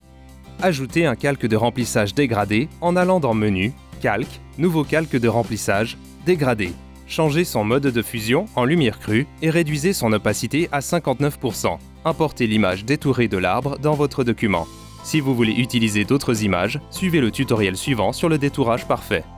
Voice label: Training FR With Music